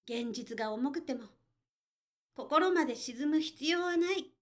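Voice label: female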